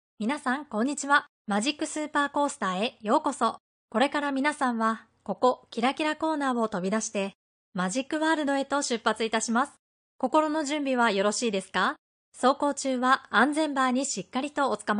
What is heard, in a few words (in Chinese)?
日语女 外语 亲切; 小语种